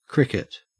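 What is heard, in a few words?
"cricket", Australian English; pronunciations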